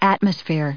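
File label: "atmosphere", English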